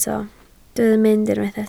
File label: "mynd", Welsh